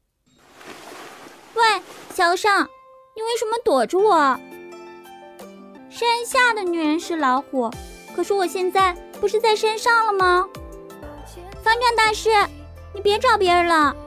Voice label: 少女音; 皈依你

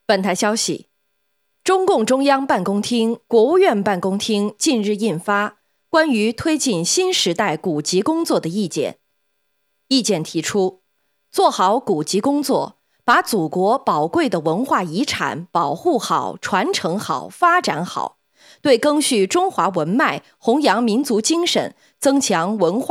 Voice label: 新闻稿件

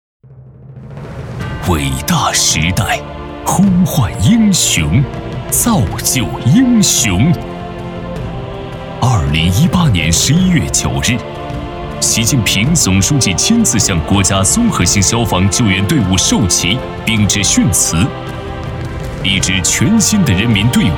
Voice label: 汇报片; 国家消防援局榜样的力量

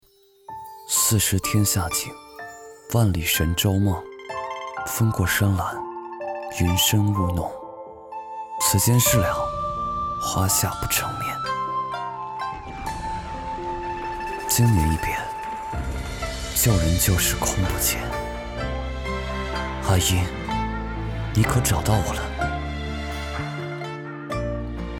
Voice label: 少年音

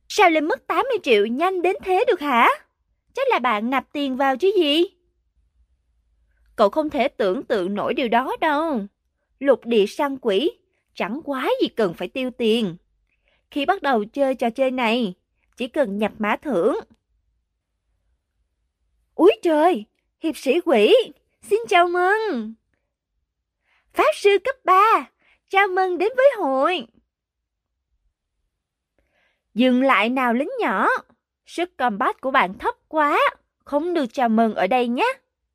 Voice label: 外籍越南语女大气活力

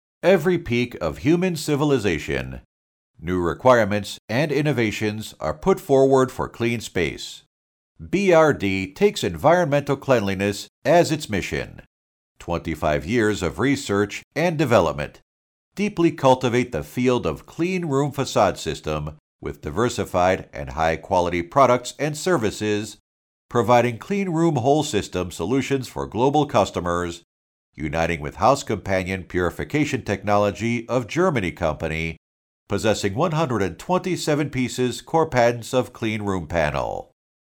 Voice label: 外籍英男; 宝润达

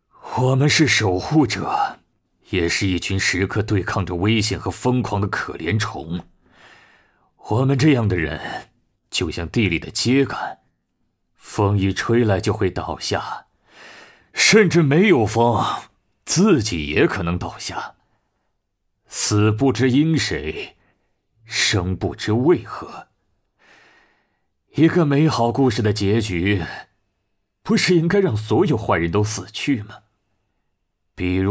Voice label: 中年濒死

